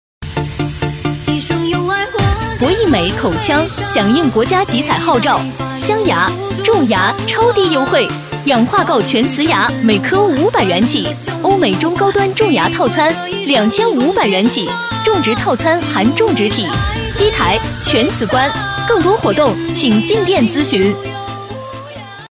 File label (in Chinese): 欢快活力女 口腔种植套餐; 激情力度